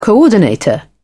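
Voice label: "coordinator", British English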